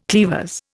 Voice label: "cleavers", Indian